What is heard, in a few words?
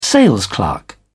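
"salesclerk", British English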